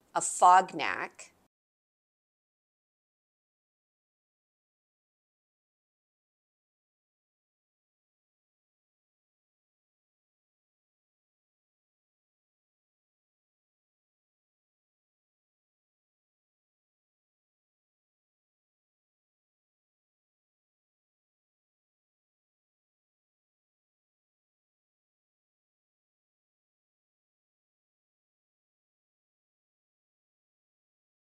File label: Afognak, pronunciation; Alutiiq, Afognak and Port Lions; Afognak Native Corp.